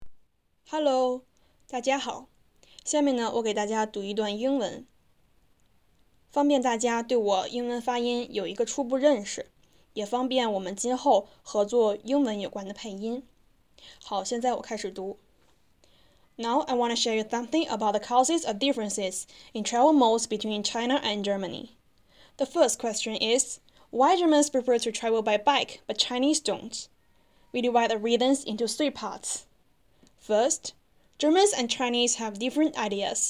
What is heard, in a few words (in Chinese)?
英文